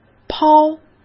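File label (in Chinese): "抛", Mandarin Chinese; hsk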